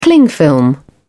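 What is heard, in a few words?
"cling film", British English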